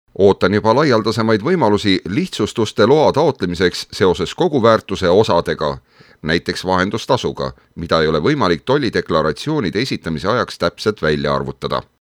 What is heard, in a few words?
male estonian